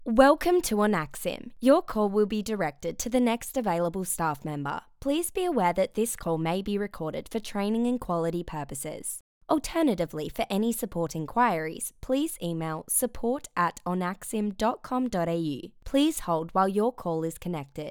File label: voice greeting